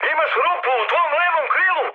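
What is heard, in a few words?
Serbian left wing mood high, speech; War Thunder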